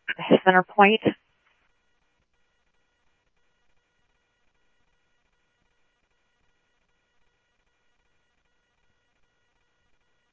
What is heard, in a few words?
Tempe Police, scanner; SimulcastF-Tempe